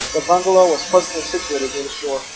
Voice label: Enhanced Speech White Noise LogMMSE 0dB SNR